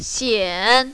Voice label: "xian3", mandarin, female

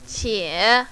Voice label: "qie3", mandarin, female

